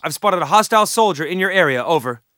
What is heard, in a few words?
spot; bf3